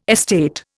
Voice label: "estate", Indian